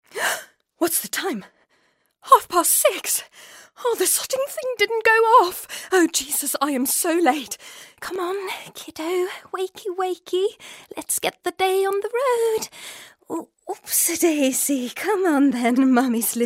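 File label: Swedish, Accent English; GoLocalise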